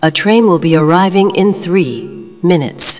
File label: tr arriving